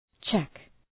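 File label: "cheque", English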